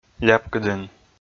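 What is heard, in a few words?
"ляпкыдін", Komi, male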